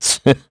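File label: Vox Deny, Korean; heroes; Clause ice; kingsraid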